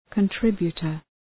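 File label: "contributor", English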